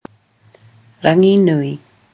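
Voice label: "ranginui", Māori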